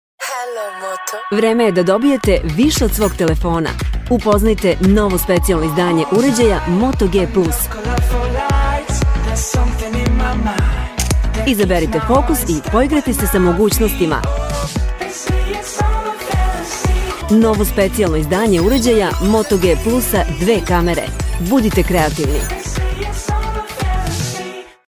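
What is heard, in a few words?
Serbian, female, commercial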